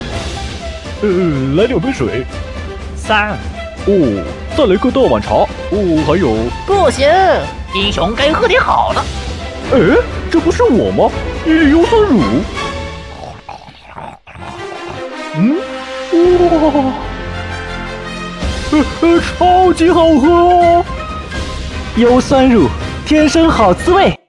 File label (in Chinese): 广告配音; 功夫熊猫